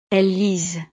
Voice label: "elles lisent", frances

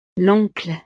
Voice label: "l oncle", frances; familia

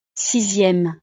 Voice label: "sixieme", frances

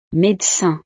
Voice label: "medecin", frances; profesiones

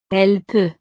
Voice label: "elle peut", frances

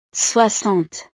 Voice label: "soixante", frances

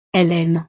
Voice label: "elles aiment", frances

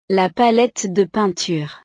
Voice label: "la palette de peinture", frances; utiles escolares

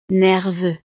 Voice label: "nerveux", frances; estados animo

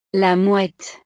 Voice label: "la mouette", frances; la gaviota; animales

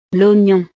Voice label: "l oignon", frances; verduras

